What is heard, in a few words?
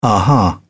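"aha", British English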